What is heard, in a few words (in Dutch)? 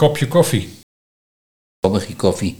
"Kopje koffie - Kommigie koffie", Nieuw lekkerlands dialect